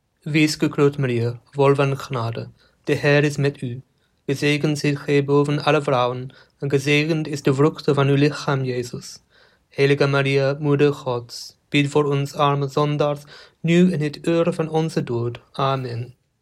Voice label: hail mary flemish dutch